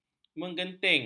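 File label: "menggenting", Malay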